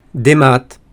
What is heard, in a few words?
breton hello